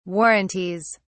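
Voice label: "warranties", British English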